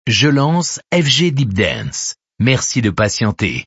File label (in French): lancement radio; fgdeepdance